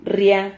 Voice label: "rria", Silabas

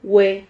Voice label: "hue", Silabas

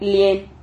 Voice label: "lien", Silabas

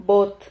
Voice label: "vot", Silabas

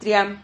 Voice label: "tria", Silabas

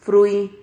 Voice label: "frui", Silabas

